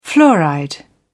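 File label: "fluoride", British English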